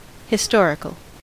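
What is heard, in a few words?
"historical", American English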